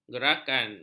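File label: "gerakan", Malay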